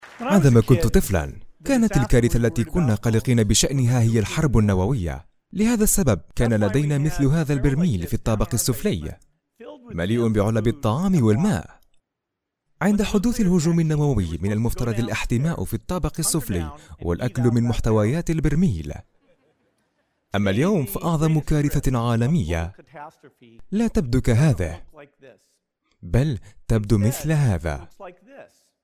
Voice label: arabic narration